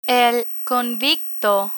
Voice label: "convicto", Spanish; court people

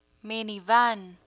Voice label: "minivan", Spanish

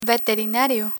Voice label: "veterinario", Spanish

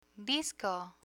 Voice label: "disco", Spanish

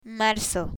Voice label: "marzo", Spanish; months of the year; learn spanish

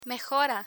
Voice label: "mejora", Spanish; learn spanish

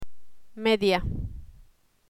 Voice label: "media", Spanish

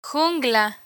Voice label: "jungla", Spanish

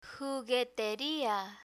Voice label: "jugueteria", Spanish

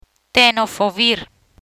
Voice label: "Tenofovir", Spanish; antiretroviral agents

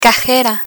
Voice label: "cajera", Spanish; spanish vocabulary; learn spanish

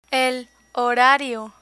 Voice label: "horario", Spanish

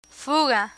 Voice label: "fuga", Spanish

